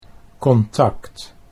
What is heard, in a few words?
"contact", Dutch